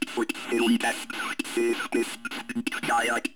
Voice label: comm voice; Ambient Audio; XDK4627 BIOS and XDK Launcher and Dashboard(for Retail); ogxbox